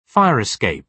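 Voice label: "fire escape", British English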